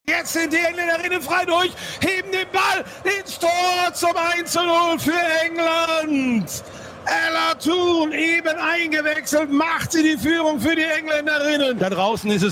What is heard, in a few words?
Collage EM Finale ENG GER